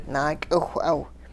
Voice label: I m singing, hupa

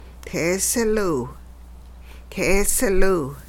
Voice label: you started swimming underwater, hupa

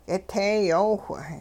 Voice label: water surged ran along stormily, hupa

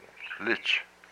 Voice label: "urine", hupa

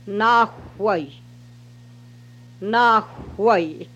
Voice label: I am here [Redwood Creek Dialect], hupa

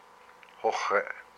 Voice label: "his foot", hupa

